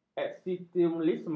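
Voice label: "eksistensialisme", Malay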